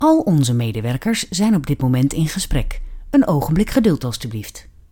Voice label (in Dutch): voorbeeld IVR al onze medewerkers zijn op dit moment in gesprek